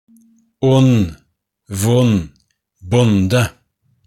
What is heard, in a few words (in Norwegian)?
vokalen o nd